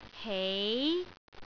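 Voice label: "hei2", Cantonese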